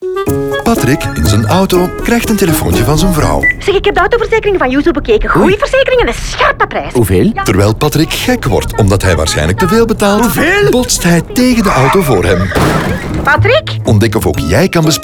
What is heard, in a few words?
Nl radio car; Yuzzu